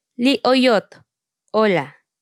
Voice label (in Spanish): Palabras en tsotsil, Li'oyot - Hola; Vocabulario tsotsil